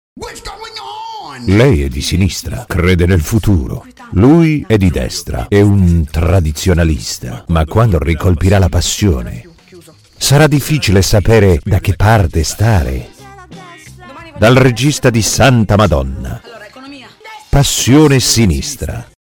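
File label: Italian commercial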